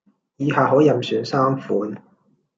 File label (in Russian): Голоса, Гонконгский